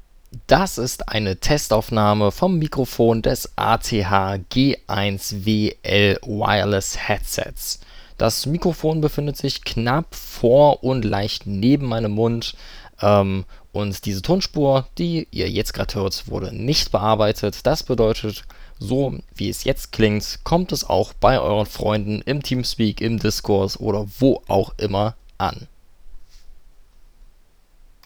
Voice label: Mic Test; ATH-G1WL